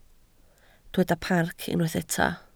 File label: "parc", Welsh